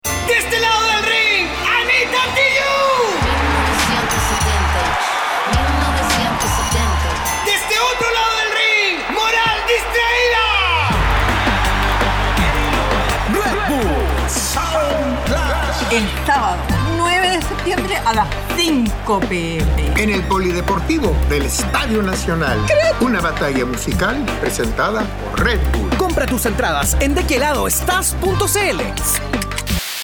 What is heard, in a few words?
Spanish